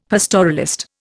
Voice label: "pastoralist", Indian; Dictionary

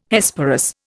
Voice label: "Hesperus", Indian

